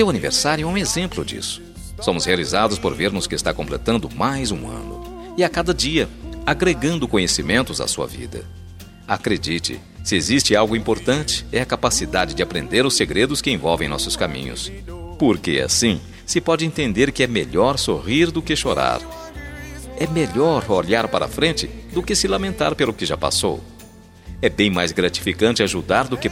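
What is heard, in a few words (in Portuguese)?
aniversario amigo plural masculino, telemensagem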